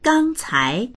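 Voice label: "gang1cai2", Mandarin Chinese; 刚才; たったいま～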